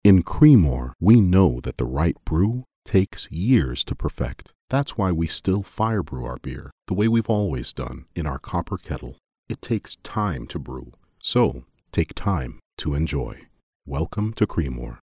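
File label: English, Canadian, male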